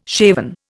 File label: "shaven", Indian